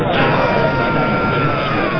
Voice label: distress, babble, sad